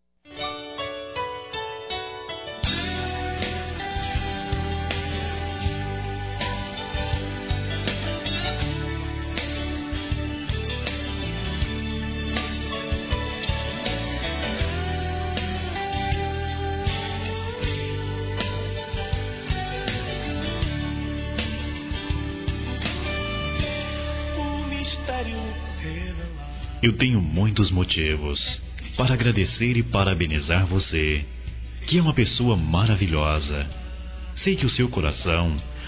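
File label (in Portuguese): NEUTRO Masculino, Aniversários Evangelico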